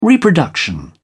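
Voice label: "reproduction", British English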